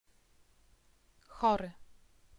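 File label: "chory" Polish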